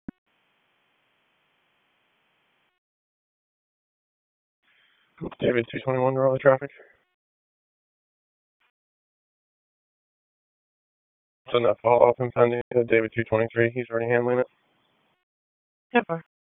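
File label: Police Northeast District Disp, radio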